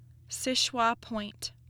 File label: "Seul Choix Point", Michigan pronunciation